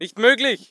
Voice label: voice ger infantry; Red Orchestra 41-45